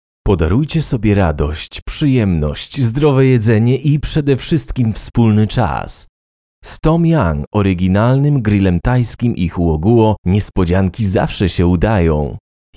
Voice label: Casual and Friendly